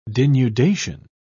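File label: "denudation", British English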